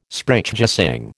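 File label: "Sprechgesang", American English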